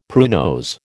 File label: "pruinose", American